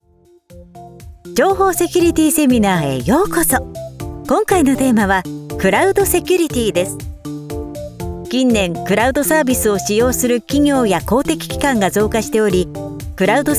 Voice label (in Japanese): BGMあり Eラーニング